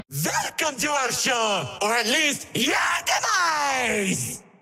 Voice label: narrator